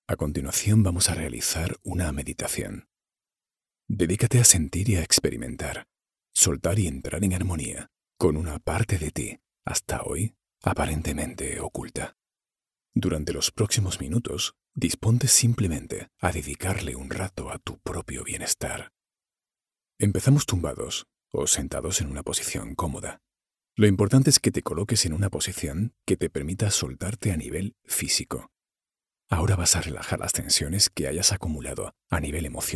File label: spanish